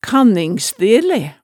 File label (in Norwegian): "kanningsdele", hemsedalsmaalet tale